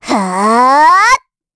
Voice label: Casting, kr; Estelle; kingsraid

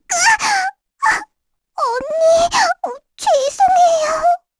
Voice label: Vox Dead kr; heroes; Lilia; kings raid

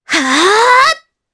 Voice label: Vox Casting, jp; Epis; kingsraid

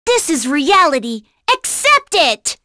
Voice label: Vox Skill, en; kingsraid

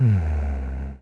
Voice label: Think, kr; Riheet; kingsraid; voices heroes

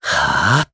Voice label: Vox Casting jp; Evan; kingsraid

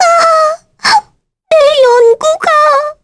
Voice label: Vox Dead, kr; heroes; Annette; kingsraid

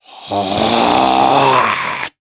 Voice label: Vox Casting, jp; Baudouin; kingsraid

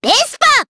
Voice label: Vox, jp; Miruru; Vespa; kingsraid

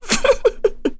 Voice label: Vox Happy kr; Chrisha; kingsraid

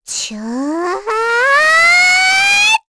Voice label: Casting, kr; voices; heroes; Lavril; kingsraid

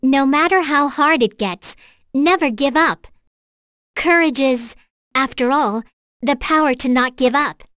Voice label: vox, en; kingsraid; voices heroes

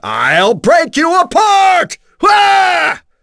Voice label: Vox Skill, en; kings raid; voices heroes en

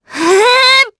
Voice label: Casting, jp; Viska; kingsraid

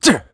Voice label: Landing, kr; Riheet; kingsraid

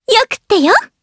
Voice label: Vox Happy, jp; kingsraid; voices heroes jp

